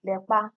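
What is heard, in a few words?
"lepa", Nigerian Pidgin, female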